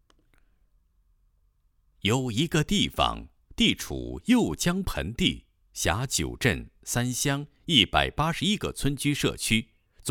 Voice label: 男, 政府建设; 百色市平果县妇幼健康优质服务示范工程

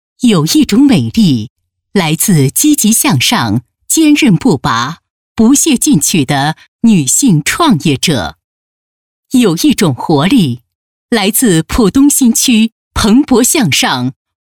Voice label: 女 大气专题 女性创业样

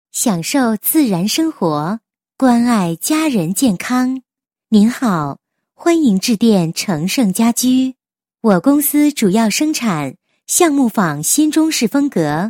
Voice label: 女 彩铃 家居, 国语女声配音; 成盛家居

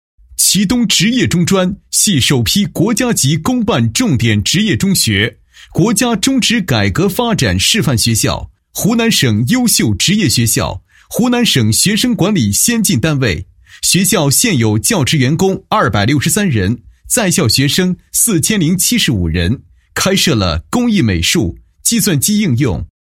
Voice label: 男 学校 职业中专是国家公办职业学校; 祁东

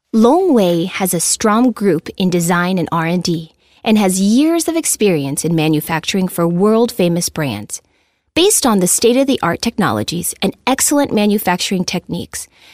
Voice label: 美式女声; 外语

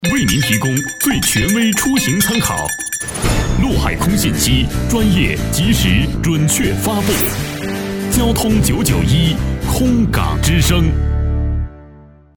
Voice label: 男, 版头; 空港之声

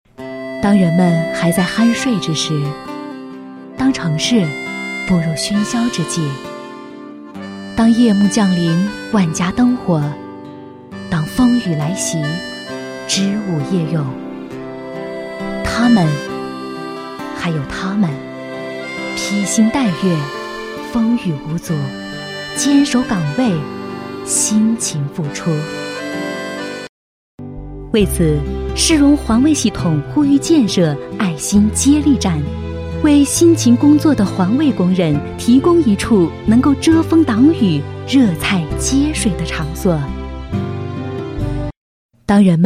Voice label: 女 宣传片; 巴士爱心接力站宣传

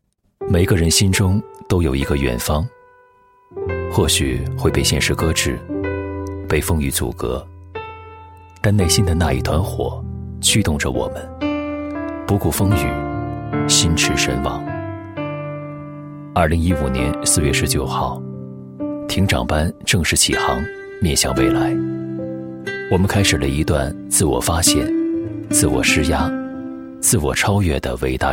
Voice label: 男 散文; 毕业回顾; Vanke艇长班整体毕业回顾

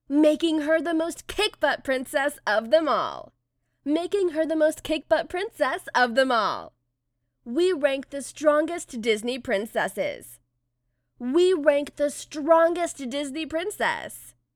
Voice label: 外籍英女 两种风格 公主; 迪士尼公主

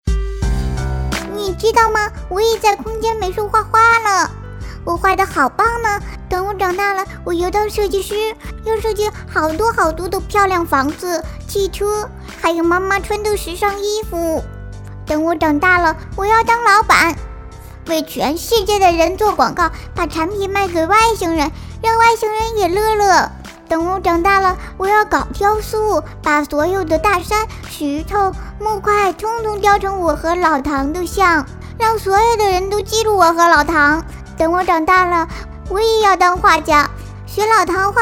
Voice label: 女 美术 童声

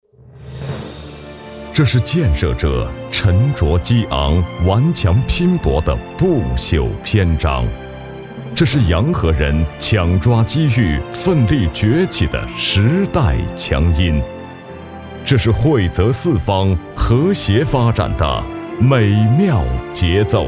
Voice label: 男 专题; 杨河建筑

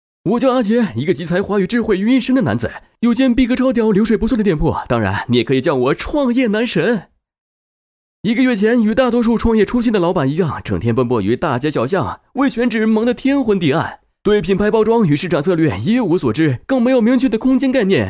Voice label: 男 幽默; 飞碟说

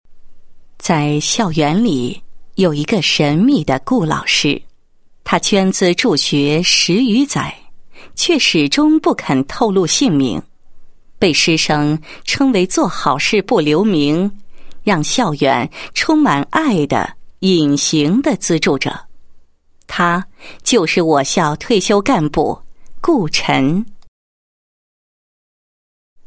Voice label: 女-人物; 学校退休干部顾某 隐形的资助者

